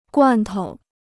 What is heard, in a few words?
"罐头", Chinese